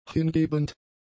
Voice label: "hingebend", German; adjectives